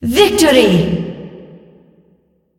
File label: meme announcer victory